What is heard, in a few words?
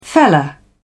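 "feller", British English, dictionary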